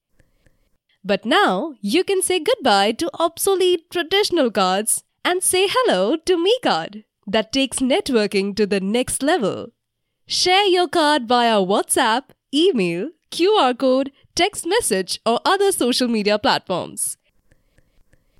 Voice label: Vibrant, Professional Hindi and Neutral English, bright and cheerful Voice